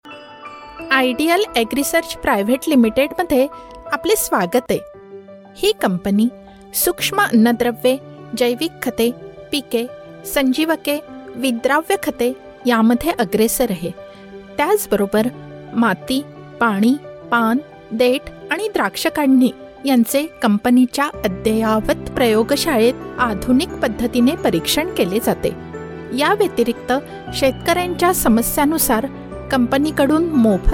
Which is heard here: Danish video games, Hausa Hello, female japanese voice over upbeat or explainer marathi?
explainer marathi